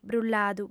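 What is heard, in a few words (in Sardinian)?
"brulladu", female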